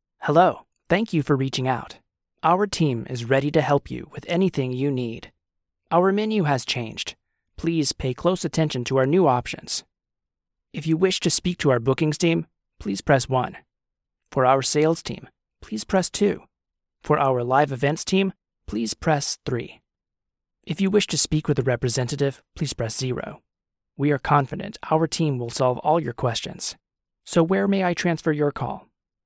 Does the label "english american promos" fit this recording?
No